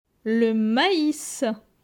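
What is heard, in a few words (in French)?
"Le mais", French; vocabulaire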